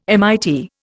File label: "MIT", Indian